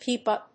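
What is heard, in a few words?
"Pipa", English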